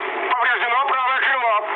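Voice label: right wing, mood med, Russian; War Thunder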